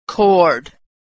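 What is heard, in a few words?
chord, American Pronunciation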